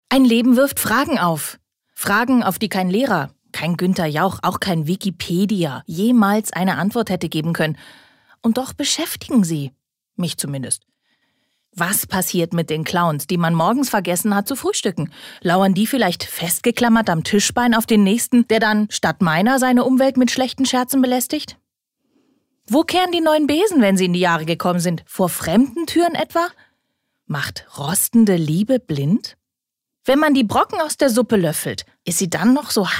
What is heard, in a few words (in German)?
Schauspiel Energisch